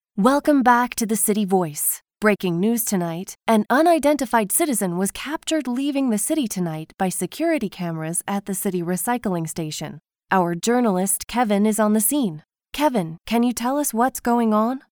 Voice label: Radio Host

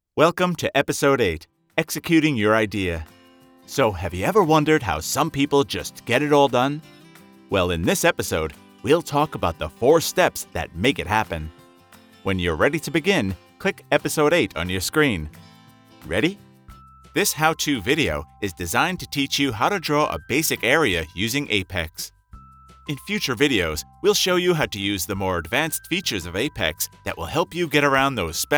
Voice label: Elearning

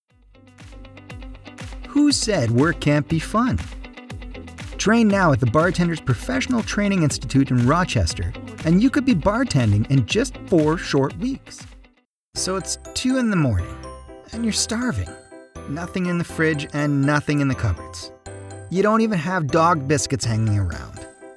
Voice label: Advertising

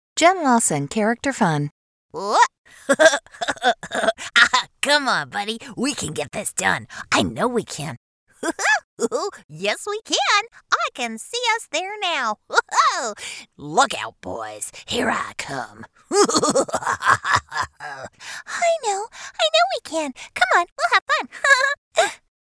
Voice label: Character Fun

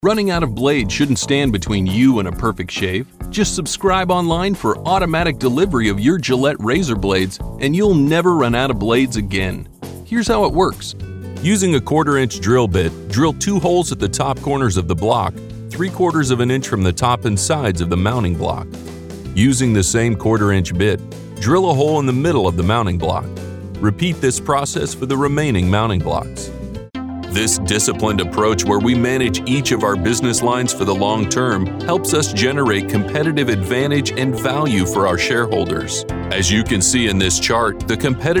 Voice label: EDU

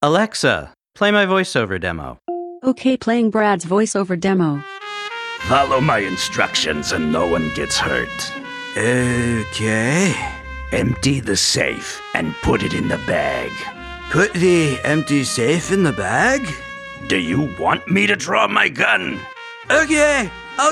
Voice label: Animation

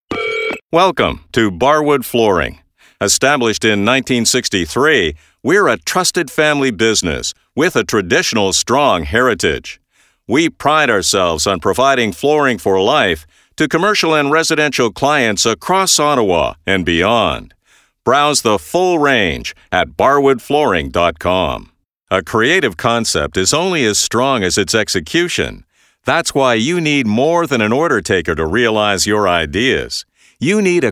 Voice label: On-Hold Messaging

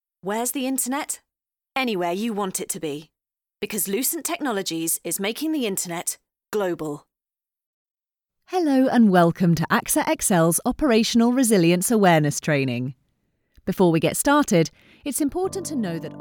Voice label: corporate voicereel

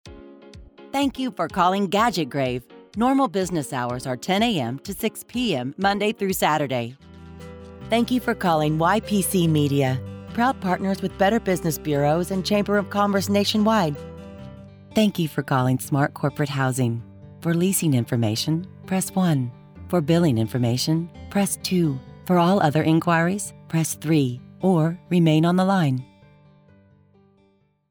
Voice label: Telephony IVR

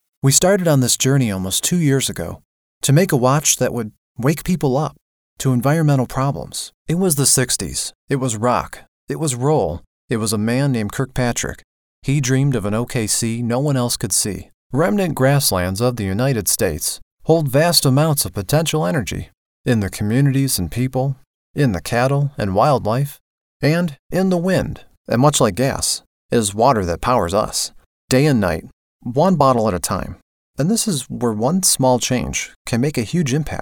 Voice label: Documentary and Sales

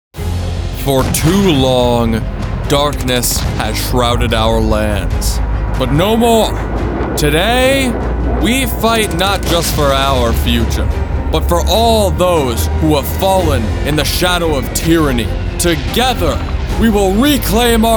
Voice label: Character

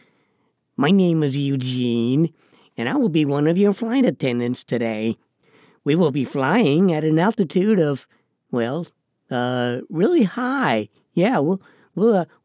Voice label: Commuter Airlines Character Read

